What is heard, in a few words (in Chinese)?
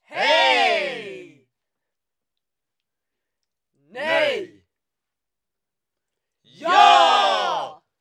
拟声Heeej(瑞典语); renlei